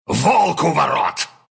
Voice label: attack, ru; dota2

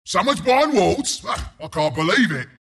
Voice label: announcer item purchase; bristleback

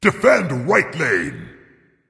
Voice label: Defend, Dragon; Fafnir; Smite

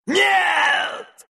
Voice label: Bat death, ru; Dota 2

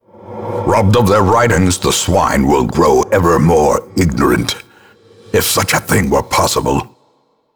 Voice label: Narration activate warrens; Darkest Dungeon

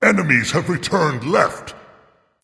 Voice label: Dragon; Returned; Fafnir

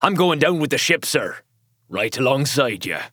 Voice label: Announcer Match End Defeat; First Mate; Paladins

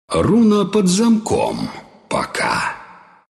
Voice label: bottle, ru; dota2

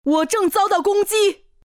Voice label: under attack, zh; Legcom; Dota 2

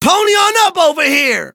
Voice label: low

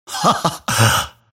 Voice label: happy; Grimstroke

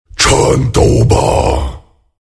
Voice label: attack, zh; Dota 2

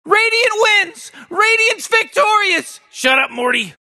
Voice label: announcer radiant victory; Rick and Morty

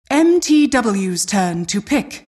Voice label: announcer team name pick; intl2012

